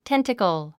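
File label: "tentacle", English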